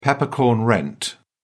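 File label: "peppercorn rent", British English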